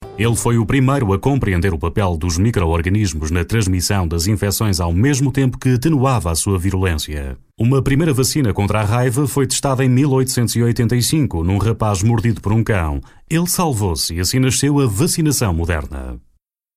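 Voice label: Portuguese, male; character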